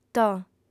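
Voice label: "toh", Sardinian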